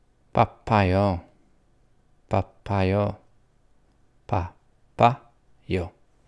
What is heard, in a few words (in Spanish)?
ocupado en coreano